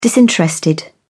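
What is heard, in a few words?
"disinterested", English, British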